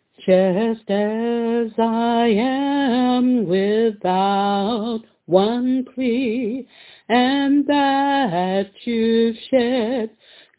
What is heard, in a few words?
Voice message